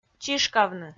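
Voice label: "чишкавны", Komi, female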